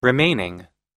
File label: "remaining", us; 残った、残りの